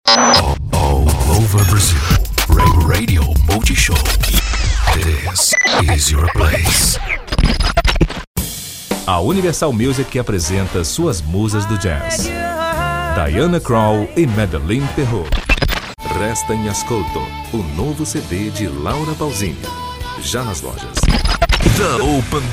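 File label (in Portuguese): Masculino, Português - Brasileiro, Medley Rádio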